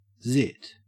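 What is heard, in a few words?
"zit", Australian English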